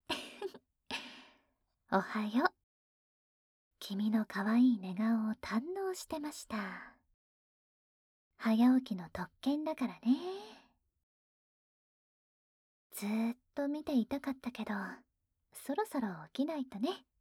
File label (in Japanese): 目覚ましボイス(朝 添い寝); 新妻彼女